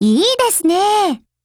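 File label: appreciate, Japanese; 81typeR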